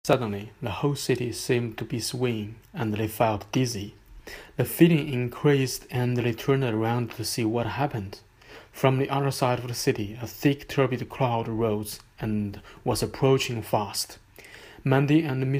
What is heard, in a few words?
English; wissenschaftliche kurzgeschichten